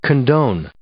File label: "condone", English